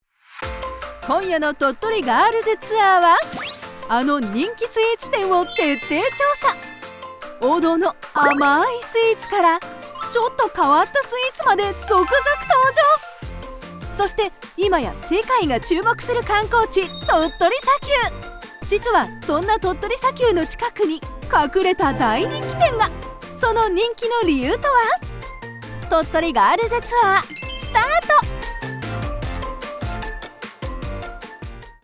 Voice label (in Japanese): バラエティ番宣 明るめ; ボイスデモ